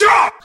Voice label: "dop", voice